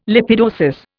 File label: "lipidosis", Indian